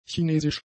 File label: "chinesisch", German; adjectives